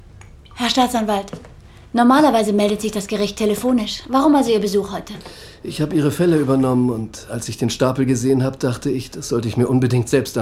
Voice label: Synchron; Black Spot

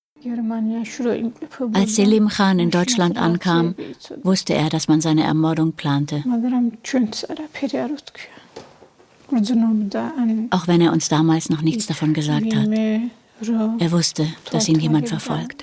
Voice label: Voice Over, frauen; Pulverfass Kaukasus

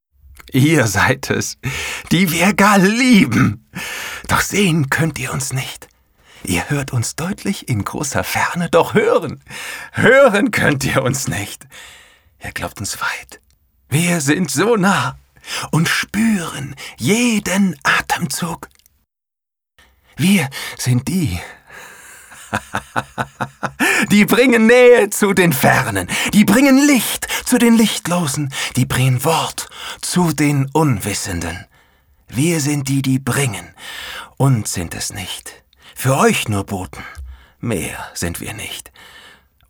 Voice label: fröhlich, maenner

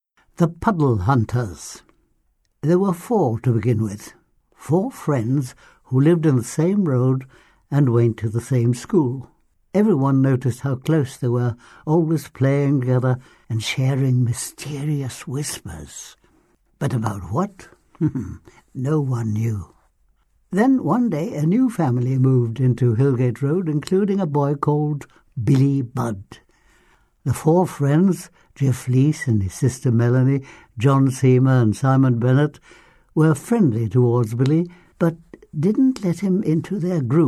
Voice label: Erzählung

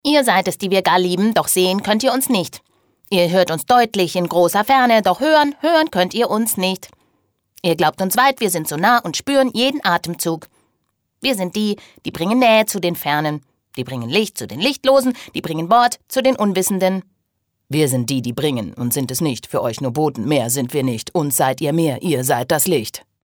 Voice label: charge, frauen

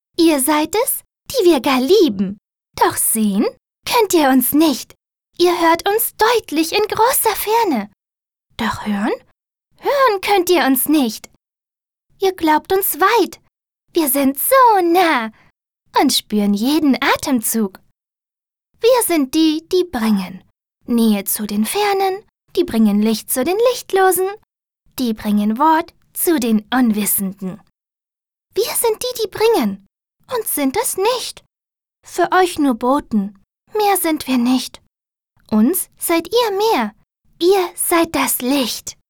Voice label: charge, frauen

